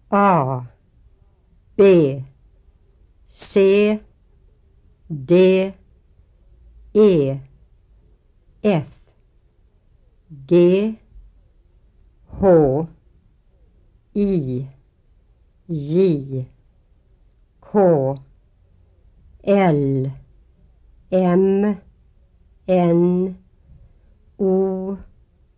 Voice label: Swedish Pronunciation; FSI; Basic Course